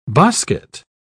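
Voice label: "bosquet", British English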